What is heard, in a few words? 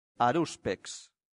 "haruspex", latin; santillana